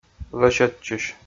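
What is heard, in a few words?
"лӧсьӧдчысь", Komi, male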